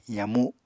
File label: "yamu", Apatani